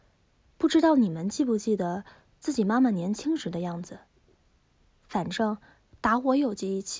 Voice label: assistant default female voice; MiniCPM-o-2 6

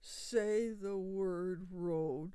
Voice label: SAD, sad emotion

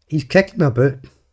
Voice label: He s kicking about, glaswegian

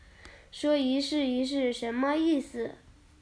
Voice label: Chinese Children Speech, Mobile phone

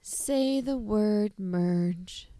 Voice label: SAD, sad emotion

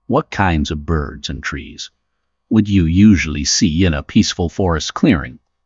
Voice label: en male; KE-Omni

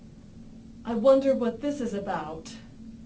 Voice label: SAD, sad emotion

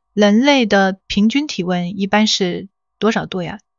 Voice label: zh female; KE-Omni

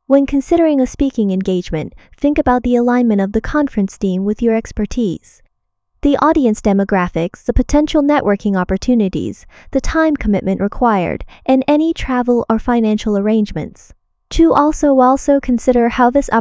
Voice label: en female; KE-Omni

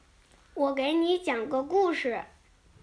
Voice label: Chinese Children Speech, Mobile phone

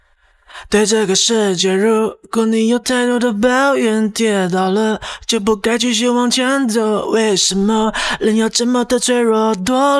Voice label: chinese male seperated; singing voice conversion

